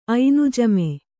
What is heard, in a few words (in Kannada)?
"ಐನುಜಮೆ", kannada